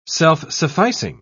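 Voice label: "self-sufficing", British English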